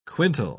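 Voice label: "quintal", English, uk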